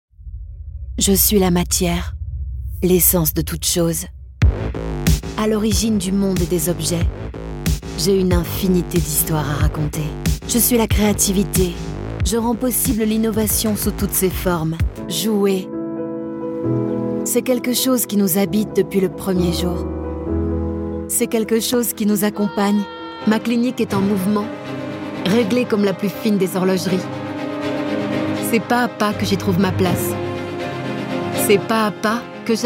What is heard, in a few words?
french voice corporate